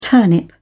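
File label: "turnip", British English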